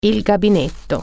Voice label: "toilet", Italian

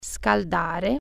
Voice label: "warm up", Italian

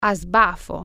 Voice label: "without paying", Italian

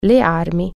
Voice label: "weapons", Italian

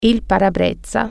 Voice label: "windshield", Italian

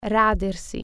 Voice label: "shave", Italian

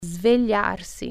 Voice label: "wake up", Italian

